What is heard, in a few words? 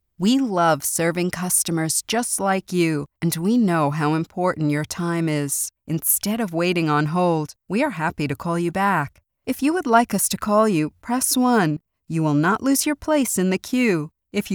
Telephony